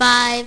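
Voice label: "five", English; My Preschool Program Demo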